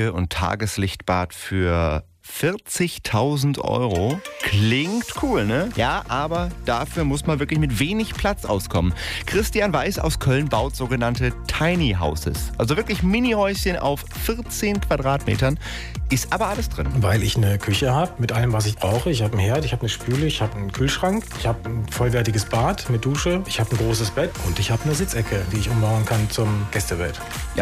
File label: Radio Aircheck; Radio Erft; TinyHouse